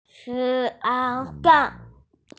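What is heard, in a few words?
"chang4", Mandarin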